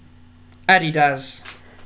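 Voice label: "Adidas", British English, male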